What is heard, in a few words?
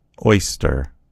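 "oyster", American English; gcompris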